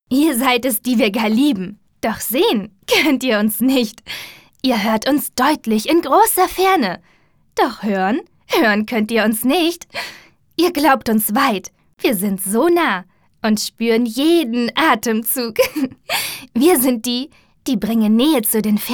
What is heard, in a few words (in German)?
fröhlich, frauen